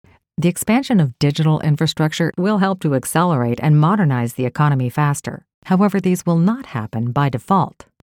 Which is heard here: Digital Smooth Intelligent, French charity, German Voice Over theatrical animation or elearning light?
Digital Smooth Intelligent